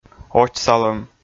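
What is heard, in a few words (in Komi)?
"очсалӧм", Komi, male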